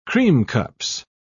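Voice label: "creamcups", English, British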